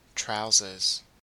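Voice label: "trousers", Australian English; pronunciations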